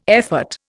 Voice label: "effort", Indian

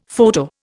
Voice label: "photo", Indian; Dictionary

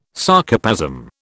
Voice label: "Sarcoplasm", British

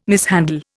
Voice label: "mishandle", Indian

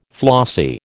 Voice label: "flossy", American

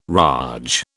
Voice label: "raj", British

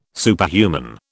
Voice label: "Superhuman", British

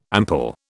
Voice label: "ample", British

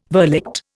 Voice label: "verligte", Indian

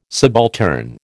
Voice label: "subaltern", American

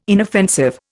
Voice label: "inoffensive", Indian

